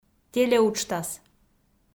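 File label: "телеуджтас", Komi, female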